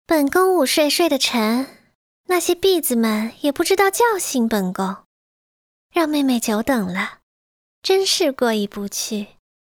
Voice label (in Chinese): 妩媚少女音古风